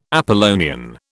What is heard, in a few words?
"Apollonian", British